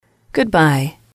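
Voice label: "goodbye", English, female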